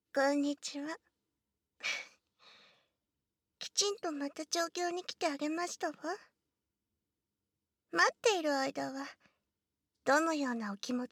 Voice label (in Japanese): お嬢様の甘やかし囁き; お嬢様の甘やかし囁き調教